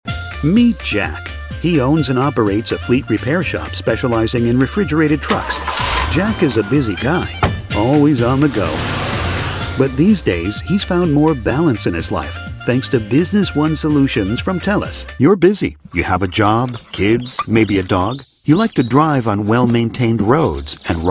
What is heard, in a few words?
Explainer VO